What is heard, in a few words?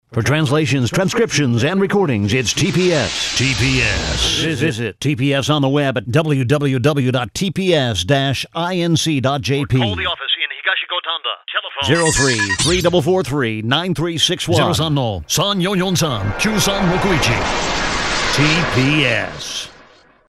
radio